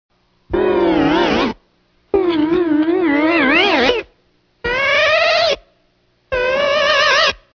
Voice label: Alien saying hello